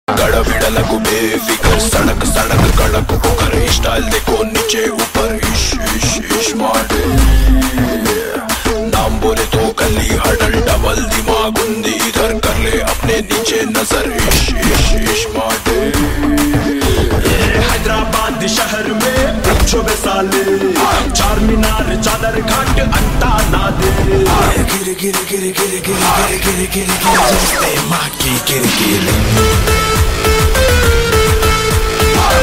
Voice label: rap bgm; ismart shankar